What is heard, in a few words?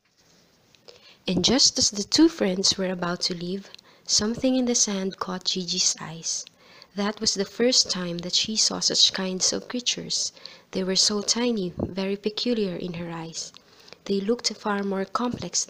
reading English